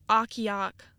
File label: "Ocqueoc", Michigan pronunciation